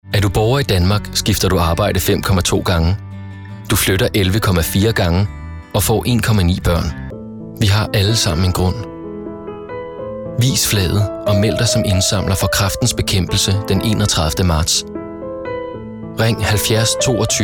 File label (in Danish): Stemmeprøve reklamer